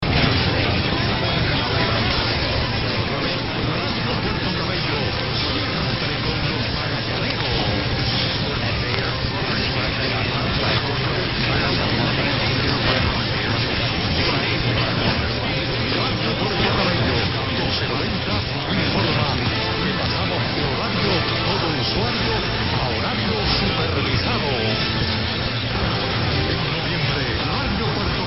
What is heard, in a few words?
Radio; YVLF; Puerto Cabello Venezuela